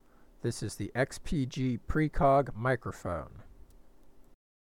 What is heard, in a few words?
Microphone Test Samples; XPG Precog; XPG Precog Gaming Headset Review